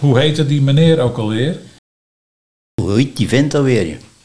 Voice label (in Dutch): Hoe hiette die vent ok alweer, dialect Nieuw lekkerlands; Hoe heette die meneer ook alweer